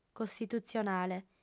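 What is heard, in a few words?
"costitutzionale", female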